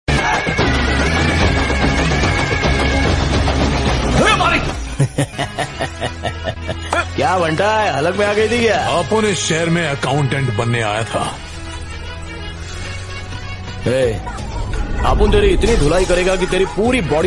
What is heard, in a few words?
Attitude Hindi Dialogue Ringtone; Maari